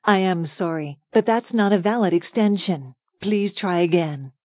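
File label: invalid extension, American English; Asterisk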